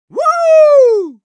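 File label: positive vocalization, Russian; "Ву-у-у-у!"; Scout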